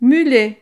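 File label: "mulet", French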